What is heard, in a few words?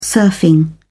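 "surfing", British English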